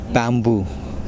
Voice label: bamboo, Kosraean